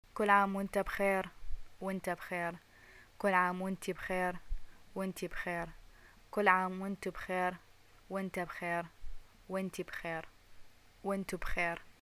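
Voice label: greeting celebration, Iraqi Arabic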